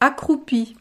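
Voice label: "accroupi", French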